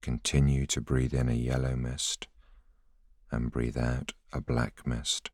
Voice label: voiceover